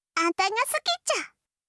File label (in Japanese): 好きです 熊本; 伊織